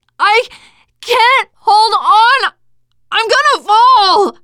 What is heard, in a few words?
ledge hang end, teengirl; player; survivor; voice